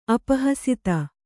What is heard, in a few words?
"ಅಪಹಸಿತ", Kannada